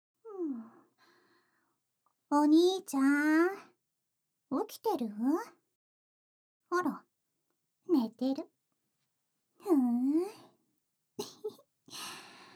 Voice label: ラブラブおはようボイス; シオンのラブラブおはようボイス♪

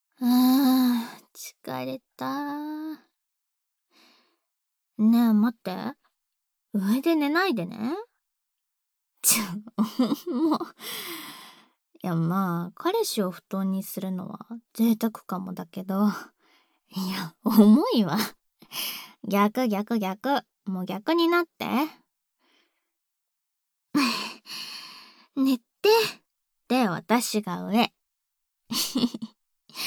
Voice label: ピロートークASMR（おしゃべり編）; 『事後、イチャついておやすみ』

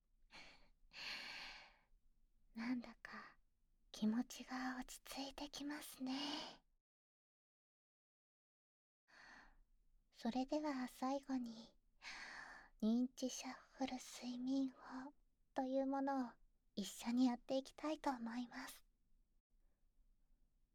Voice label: 認知シャッフル療法でおやすみなさい, ASMR

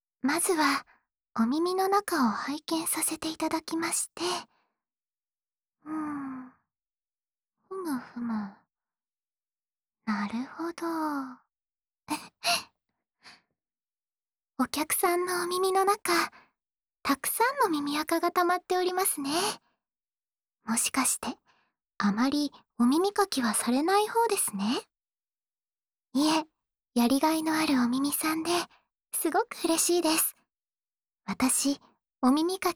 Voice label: 湯浴みの後の囁きお耳かき(右), ASMR

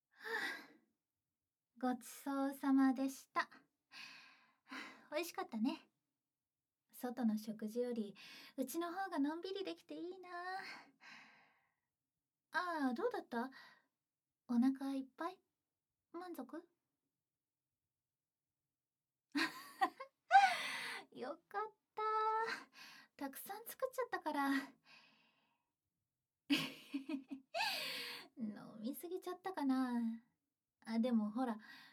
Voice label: マッサージ, ASMR; 恋人はマッサージ師。ほぼ毎日エステの実験台。リフレッシュで癒やされる日々

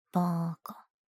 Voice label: "ば～～か", システムボイス

